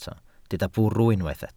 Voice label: "bwrw", Welsh